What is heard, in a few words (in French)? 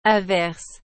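"averse", French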